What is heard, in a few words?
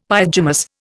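"pyjamas", Indian English; Dictionary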